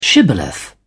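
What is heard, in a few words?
"shibboleth", British English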